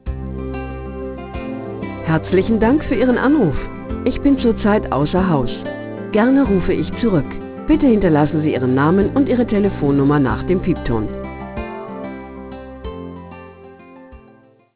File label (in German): ansage (w) (m)